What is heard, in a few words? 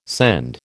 "scend", American